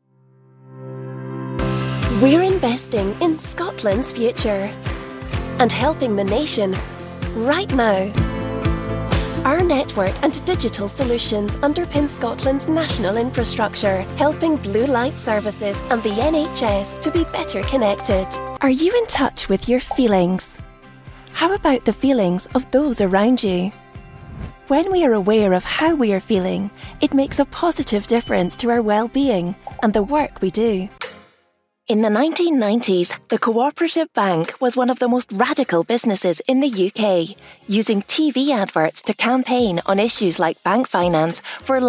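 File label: voice reel, Bright Subtly Husky Scottish Voice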